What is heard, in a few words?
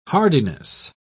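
"hardiness", British English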